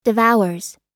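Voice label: "devours", American English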